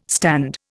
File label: "stand", Indian